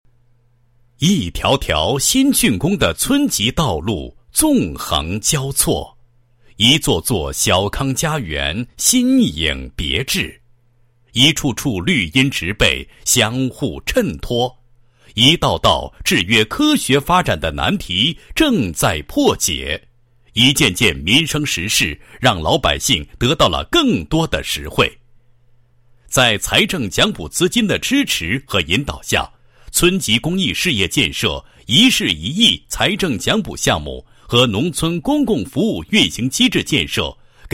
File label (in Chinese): 男 工作汇报; 丰县村级公益事业建设